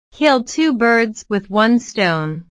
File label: "birds stone", inglés